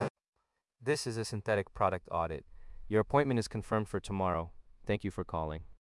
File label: english source; dub